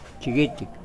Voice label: Nawat palabras pequenio; pipil

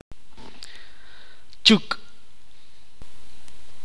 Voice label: rojo, itza

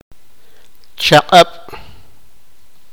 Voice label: "noche", sipakapense

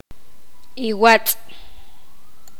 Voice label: poqomam piel humana

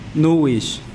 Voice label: Nawat palabras ojo; pipil

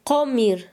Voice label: "verde", Quechua Chanka

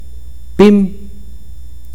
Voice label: grueso de objetos planos, akateko

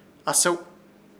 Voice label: "ASEW" agarrara, Ishir Chamacoco

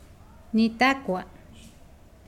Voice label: Nawat palabras comer; pipil

